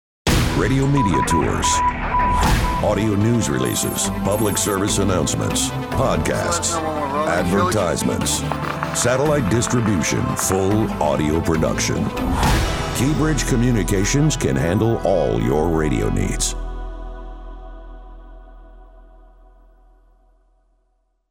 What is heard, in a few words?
Radio Promo; KBC